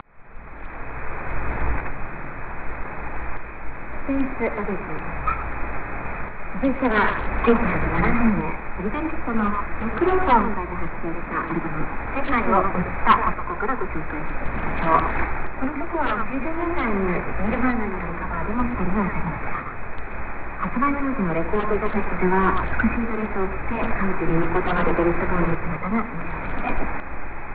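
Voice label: English AM; 11965,0; MDG; World Christian Broadcasting; Mahajanga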